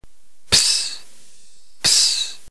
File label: psi, ntgreek alphabet phoneme